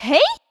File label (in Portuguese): Chamamento intenso